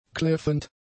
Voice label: "kläffend", German; adjectives